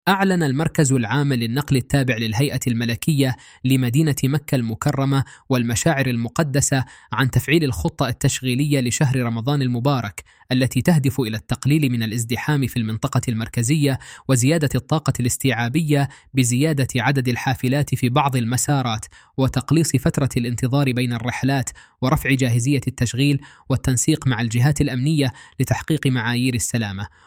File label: arabic